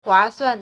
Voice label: "hua4 suan4", Chinese

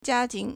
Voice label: "jia1 ting2", Chinese

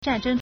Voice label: "zhan4zheng1", Chinese

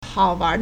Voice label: "hao3wan2", Chinese

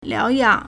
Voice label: "liao2yang3", Chinese

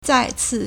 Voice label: "zai4ci4", Chinese

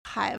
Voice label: "hai3", Chinese

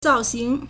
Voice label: "zao4xing2", Chinese

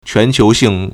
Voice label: "quan2 qiu2 xing4", Chinese

